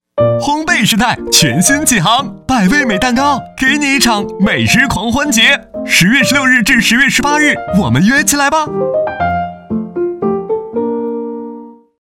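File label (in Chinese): 【促销】男-时尚; 蛋糕; 百味美蛋糕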